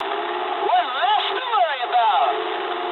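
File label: English player killed enemy mood high; War Thunder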